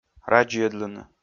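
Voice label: "радзйӧдлыны", Komi, male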